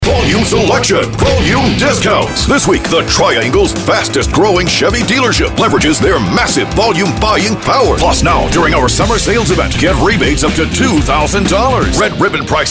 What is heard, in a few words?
Auto Commercials; car dealership